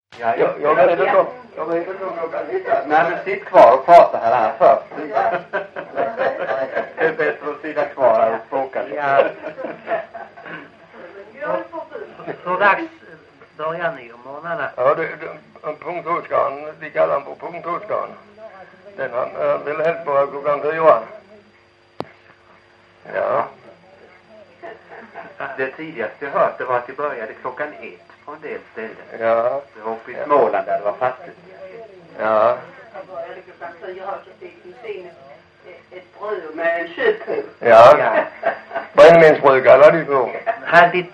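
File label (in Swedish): Lilla Harrie Skåne, dialekter svenska sagor; Folkminnen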